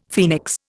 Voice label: "phoenix", Indian; Dictionary

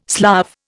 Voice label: "Slav", Indian

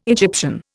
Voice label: "Egyptian", Indian

